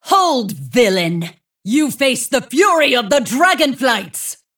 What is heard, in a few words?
Female Dragon Good Fight; Player Start